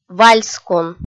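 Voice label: "вальскун", Komi, female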